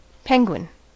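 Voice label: "penguin", US English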